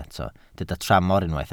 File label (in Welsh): "tramor", Welsh